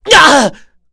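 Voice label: Vox Down, Korean; Ezekiel; Kings Raid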